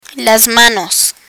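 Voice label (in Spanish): "manos", Spanish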